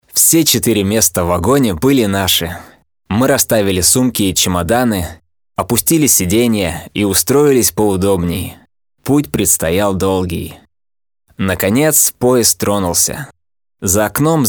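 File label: Russian male Casual, vo examples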